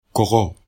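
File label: "kogo", Bambara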